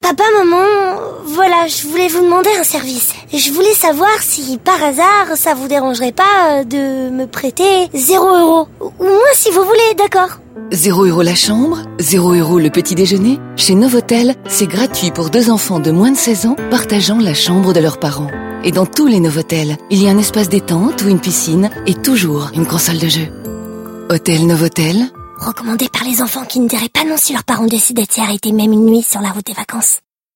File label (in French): radio chaleureux bienveillant; novotel